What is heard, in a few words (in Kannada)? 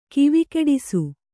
"ಕಿವಿಕೆಡಿಸು", Kannada